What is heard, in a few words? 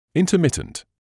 "intermittent", English